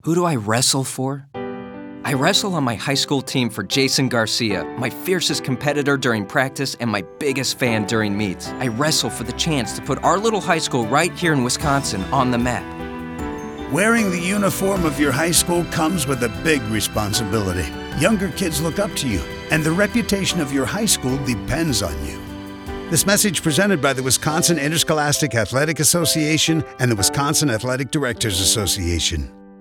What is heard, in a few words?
audio spot